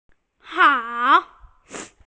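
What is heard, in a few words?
"hao3", Mandarin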